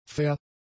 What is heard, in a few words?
"fair", German; adjectives